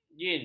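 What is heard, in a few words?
"jin", Malay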